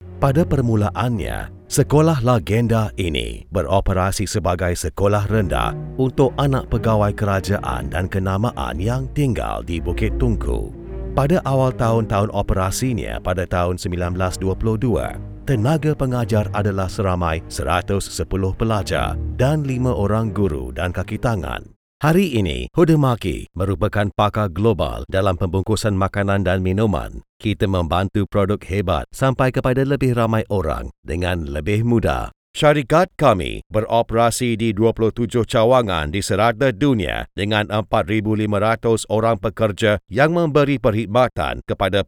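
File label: Malay corporate; GoLocalise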